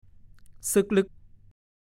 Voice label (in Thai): "ซึ่กลึ่ก", ภาษาโคราช